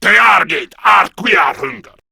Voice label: Player Spotted, Male; Warframe; Grineer Male B; Battle Barks